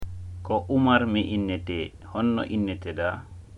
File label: dialogue utterance; hiwrondiral sondo woo greetings any moment